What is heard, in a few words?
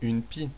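magpie, French; animals; birds; tuxpaint-stamps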